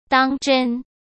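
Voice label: "当真", Mandarin Chinese; hsk; chinese-flashcards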